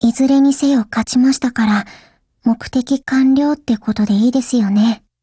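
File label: WIN Japanese, Voice; GF Alarm; Ameli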